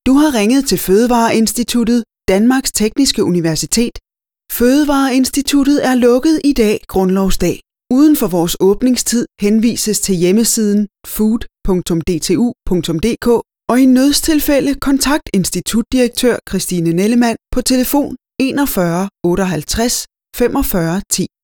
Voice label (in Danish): telefonsystem